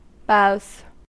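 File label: "boughs", US English; pronunciations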